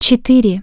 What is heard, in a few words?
"0", Russian Human Voice Tone